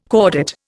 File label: "cordate", Indian